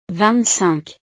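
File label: "vingt cinq", French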